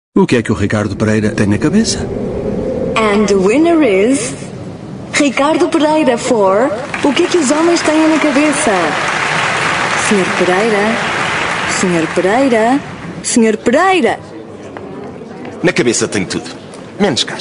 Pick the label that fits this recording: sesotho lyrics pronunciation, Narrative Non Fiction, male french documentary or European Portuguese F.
European Portuguese F